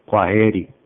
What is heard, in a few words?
goodbye, swahili